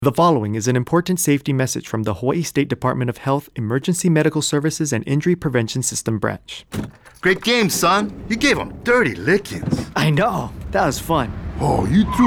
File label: PSA; Accident